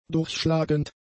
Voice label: "durchschlagend", german; adjectives